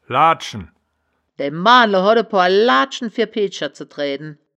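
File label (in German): "laadschen", Wittlicher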